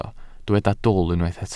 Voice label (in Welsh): "dwl", Welsh